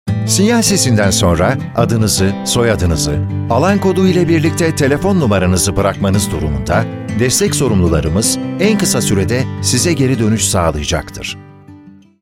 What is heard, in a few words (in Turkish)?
santral, santral seslendirme